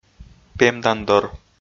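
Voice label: "пемдандор", Komi, male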